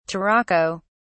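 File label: "turaco", British English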